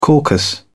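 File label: "caucus", British English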